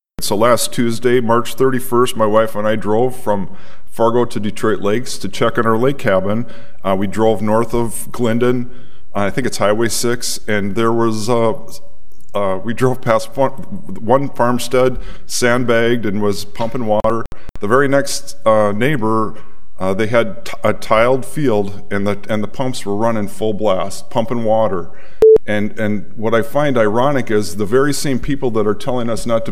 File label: Rant; Drain Tile